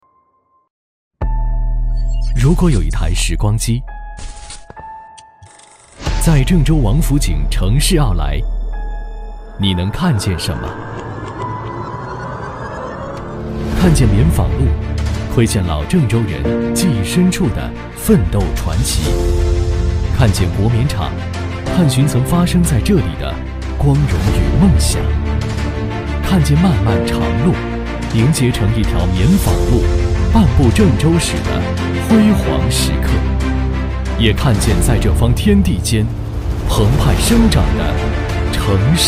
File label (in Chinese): 男 宣传片; 郑州王府井奥莱11周年